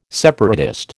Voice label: "separatist", American